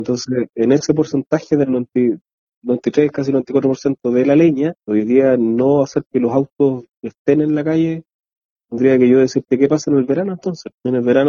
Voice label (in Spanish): cuña; RESTRICCION